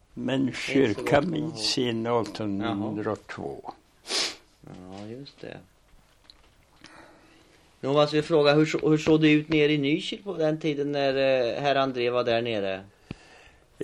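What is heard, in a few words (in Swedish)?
Gammelkil Östergötland, dialekter; Folkminnen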